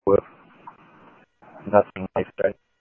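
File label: Sheriff Lake Patrol, scanner audio trunk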